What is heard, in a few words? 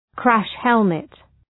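"crash helmet", English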